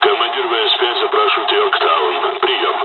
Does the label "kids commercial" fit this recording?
No